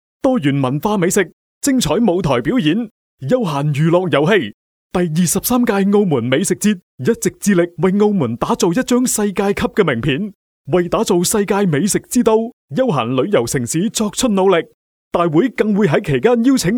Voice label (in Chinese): 粤男 活动预告; 澳門美食節